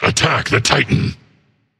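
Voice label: attack, male; smite; anhur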